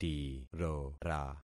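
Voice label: "tirora", concatenated syllables; Affixing experiment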